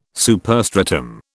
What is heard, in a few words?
"superstratum", British